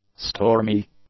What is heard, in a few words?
"stormy", English; adjectives